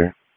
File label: Police North District Tac 1, p25; Raleigh; wake